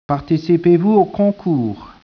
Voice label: speech, French